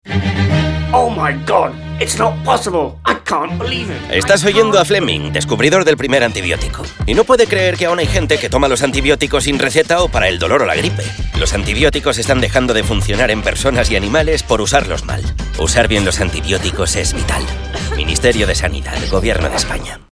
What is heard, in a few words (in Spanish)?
CUÑA Castellano; cuña de radio; Usar bien los antibióticos